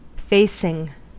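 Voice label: "facing", American English; pronunciations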